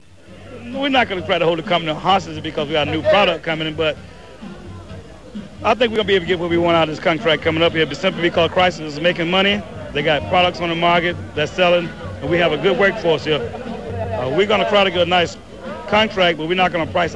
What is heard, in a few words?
AAE auto worker, African American